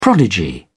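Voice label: "prodigy", English, British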